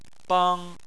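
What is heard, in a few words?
"bang" Chinese, first tone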